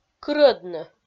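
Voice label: "кырӧдны", Komi, female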